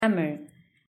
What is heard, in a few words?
"hammer", en; grade1; properties of things